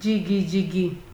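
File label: "jigijigil", Nigerian Pidgin, female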